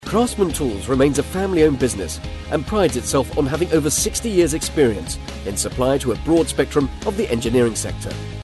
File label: Commercial